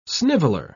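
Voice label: "sniveller", British English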